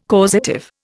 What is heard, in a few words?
"causative", Indian